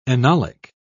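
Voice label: "enolic", British English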